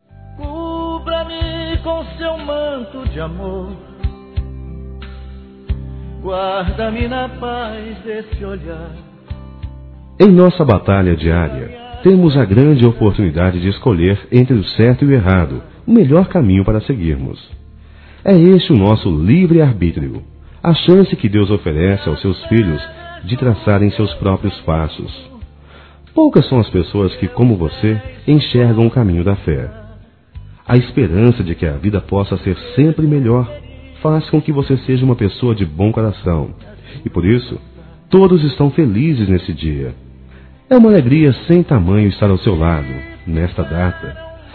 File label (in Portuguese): Neutro Católico Masculino; ANIV. Evangelico